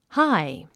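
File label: "high", English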